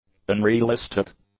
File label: "unrealistic", english; adjectives